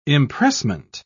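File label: "impressment", British English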